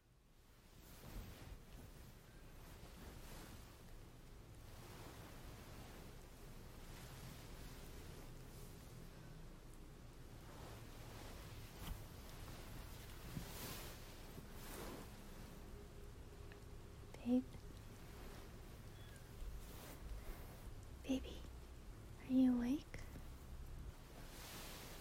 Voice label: Sleepy Rambling; F4A; Cold Feet and Warm Bodies; Early Rainy Morning Cuddles; GFE; Soft Spoken; Whispers